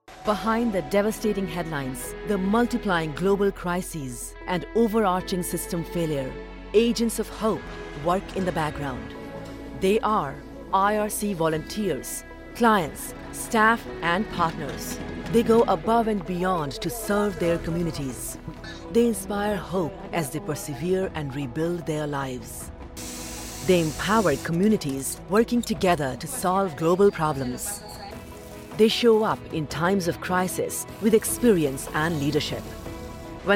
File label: Commercial; IRC Hope